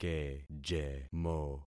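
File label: "kejemo", concatenated syllables; Affixing experiment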